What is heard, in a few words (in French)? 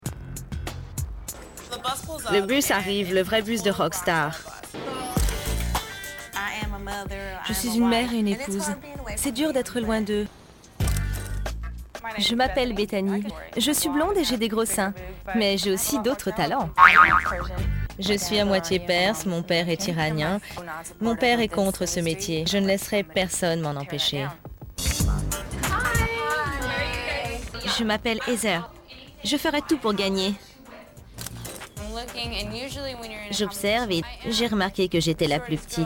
POST SYNCHRO MEDLEY DES DIFFÉRENTES VOIX; AMERICAS NEXT TOP MODEL